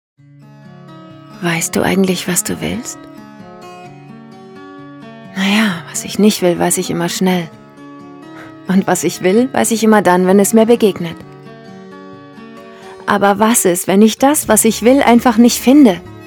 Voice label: german automotive